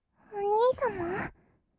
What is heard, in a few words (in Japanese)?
お兄様, kawaii voice; ASMR; 二人称